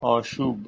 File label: "aashub", Persian